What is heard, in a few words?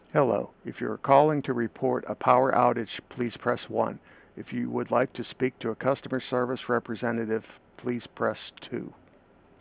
Voice Advanced Greeting Message